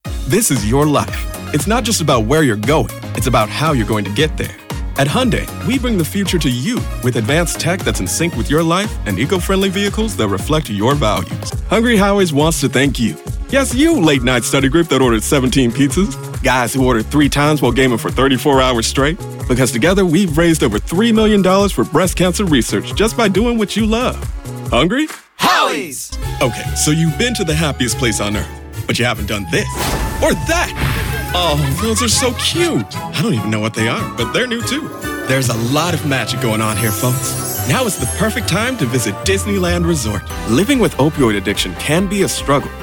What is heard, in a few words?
Commercial